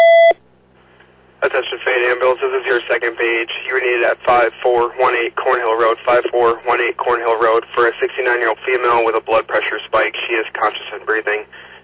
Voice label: Ambulance, dispatch